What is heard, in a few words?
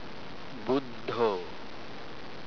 buddho, pali